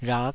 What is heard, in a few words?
"rhak5", Taiwanese